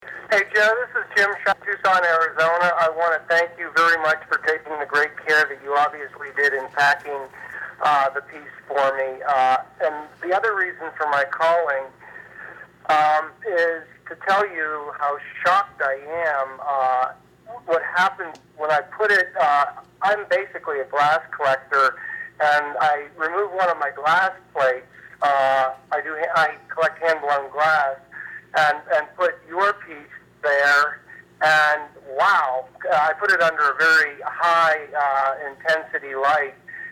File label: voicemail testimonial